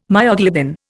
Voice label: "myoglobin", Indian